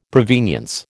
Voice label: "provenience", American